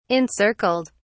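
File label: "encircled", British English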